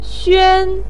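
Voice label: "xuan1" Chinese syllable, pinyin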